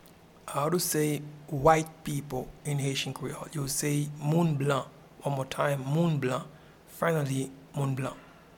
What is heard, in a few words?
White people in Haitian Creole Moun blan